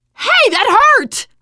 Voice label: friendly fire, teengirl; player; survivor; voice